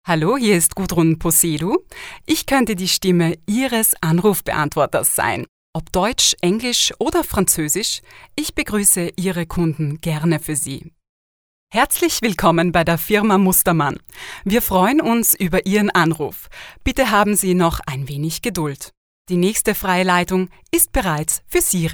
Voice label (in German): Telefonansagen